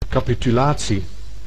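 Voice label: "capitulatie", Dutch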